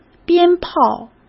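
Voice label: "鞭炮", Mandarin Chinese; chinese flashcards; hsk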